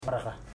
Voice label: "six", Ipili

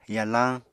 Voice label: "yalañ", Apatani

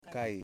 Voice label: "Canoe", Yimas

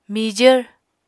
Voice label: "mijvr", Apatani

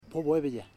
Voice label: "wind", Ipili; Ambua

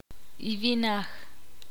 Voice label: ixil; esposo

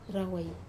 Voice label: "rawai" more than, Wamesa

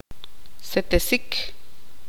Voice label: tzutujil; redondo circular

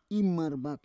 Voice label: "imarvak", Roon

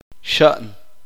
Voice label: "zancudo", Uspanteko

